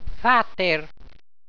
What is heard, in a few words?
"vater", Walser